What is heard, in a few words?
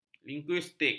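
"linguistik", Malay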